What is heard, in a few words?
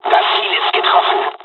japanese; first wave